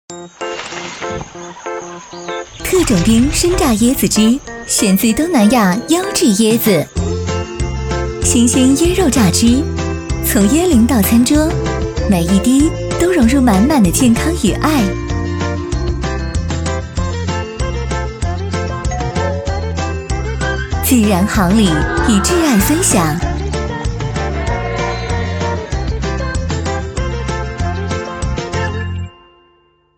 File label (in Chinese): 女 广告; 特种兵生榨椰汁